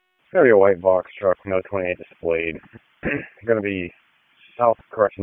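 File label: Wake Forest Police Dispatch, p25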